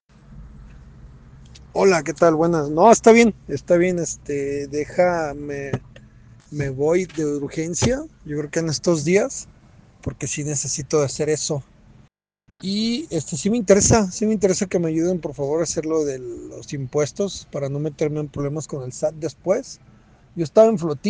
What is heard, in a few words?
whatsapp ptt